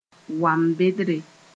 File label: "wam-bendre", Mooré